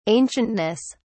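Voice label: "ancientness", English, British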